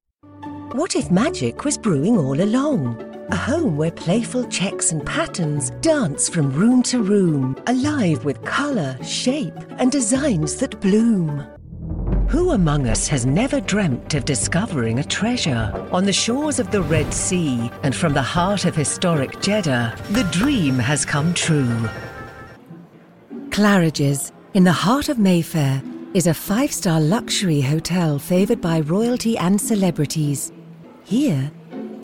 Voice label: female voices